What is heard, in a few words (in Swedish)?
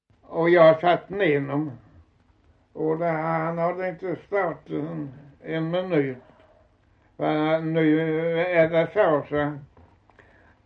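Osby Skåne, dialekter; Folkminnen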